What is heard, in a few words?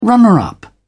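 "runner-up", British English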